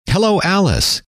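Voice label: hello, male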